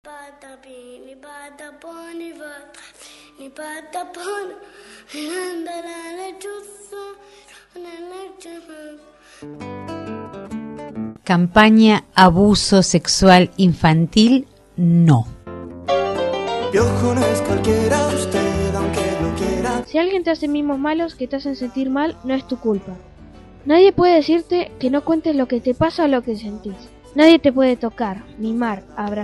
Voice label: spot

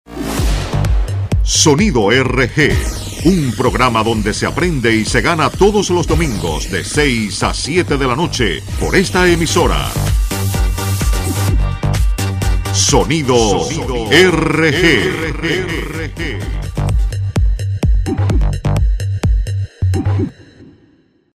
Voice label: promocion de sonido

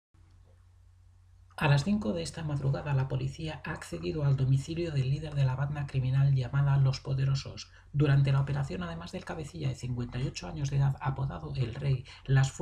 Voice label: locución

